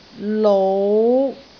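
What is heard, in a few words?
"lou3", Cantonese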